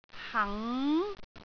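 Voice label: "hang2", Cantonese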